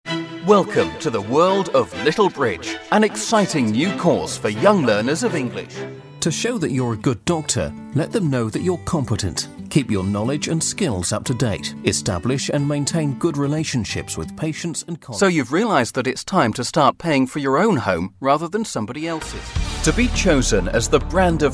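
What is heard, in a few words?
British, voice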